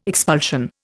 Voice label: "expulsion", Indian